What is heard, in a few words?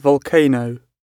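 "volcano" British English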